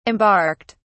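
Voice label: "embarked", British English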